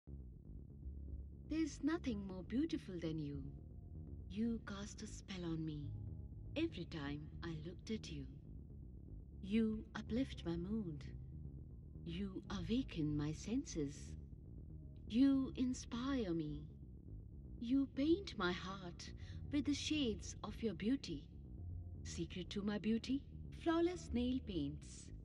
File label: nailpaint ad english